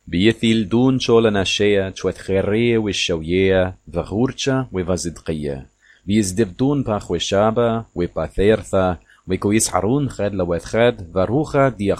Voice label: aramaic